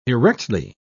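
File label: "erectly", British English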